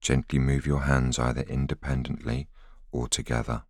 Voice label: voiceover